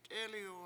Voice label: "t7El1w", Washo; someone